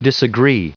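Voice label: "disagree", US English, recite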